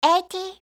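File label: "eighty", English; eng suuji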